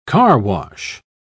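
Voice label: "carwash", English, British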